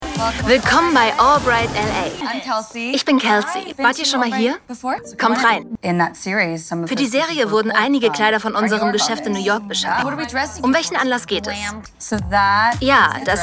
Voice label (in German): Voiceover Reality Show Verkaufsgespraech